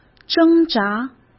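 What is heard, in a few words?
"挣扎" Mandarin Chinese; chinese flashcards; hsk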